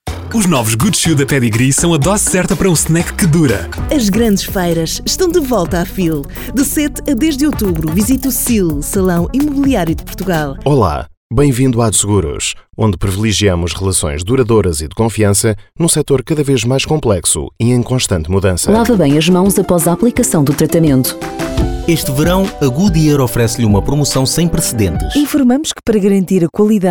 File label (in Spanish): PORTUGUES